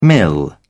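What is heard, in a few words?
"mill", British English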